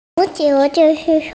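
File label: "football", Mandarin, hearing-impaired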